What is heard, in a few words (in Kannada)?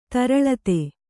"ತರಳಿತ", Kannada